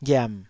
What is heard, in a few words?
"ghiam3", Taiwanese